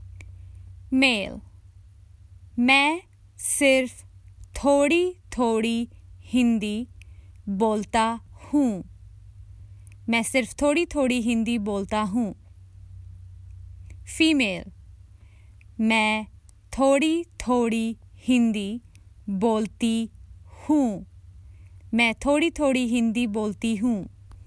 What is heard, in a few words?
I only speak a little Hindi, Common Hindi Phrases for travel